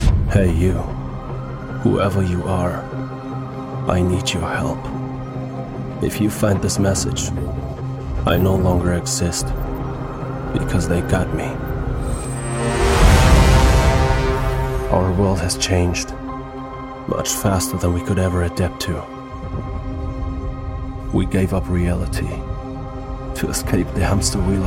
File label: VO trailer english